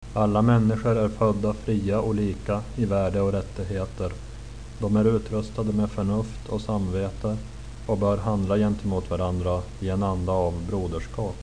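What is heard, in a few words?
Swedish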